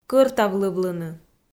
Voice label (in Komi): "кӧртавлывлыны" Komi